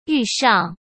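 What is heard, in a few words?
"遇上", Mandarin Chinese; chinese flashcards; hsk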